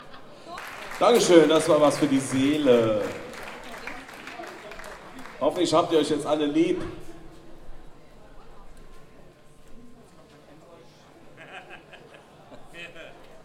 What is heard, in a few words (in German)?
Ansage, Konzert; Boemundhof, Saarburg